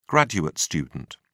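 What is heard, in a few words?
"graduate student", English, British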